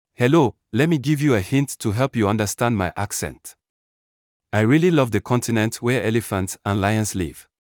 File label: Africa, english accents; english accents challenge